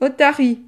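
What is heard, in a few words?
"otarie", French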